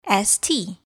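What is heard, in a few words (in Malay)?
"st", Malay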